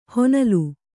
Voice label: "ಹೊನಲು", kannada